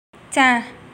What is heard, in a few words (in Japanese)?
はい 女